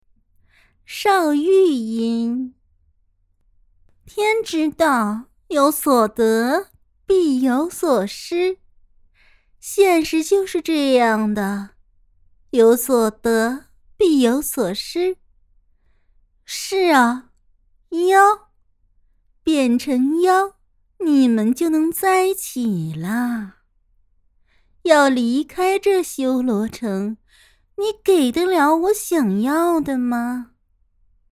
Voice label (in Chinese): 少御音